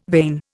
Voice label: "vein", Indian